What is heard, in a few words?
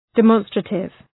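"demonstrative", English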